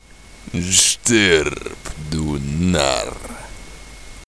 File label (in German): Narr